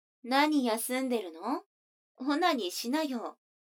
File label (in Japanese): 女の子台詞